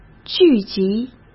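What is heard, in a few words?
"聚集", Mandarin Chinese; chinese flashcards; hsk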